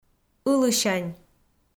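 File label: "ылысянь", Komi, female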